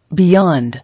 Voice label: "Beyond", English